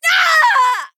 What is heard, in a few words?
Female Night Elf Death